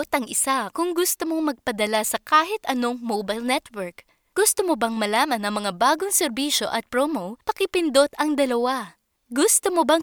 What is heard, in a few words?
Teleprompt Tagalog